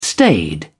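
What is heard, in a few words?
"staid", British English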